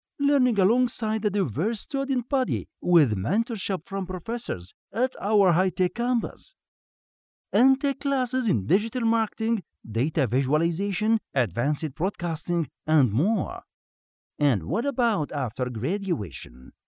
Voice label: male arabic voice over english

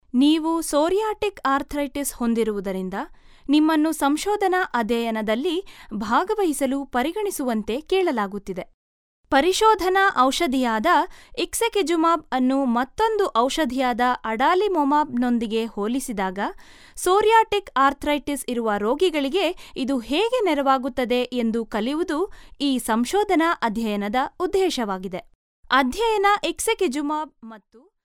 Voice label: female kannada voice over narrative

